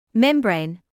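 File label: "membrane", British English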